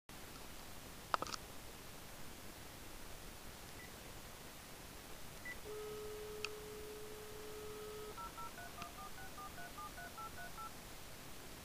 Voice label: telephone talk; firm of Serrarens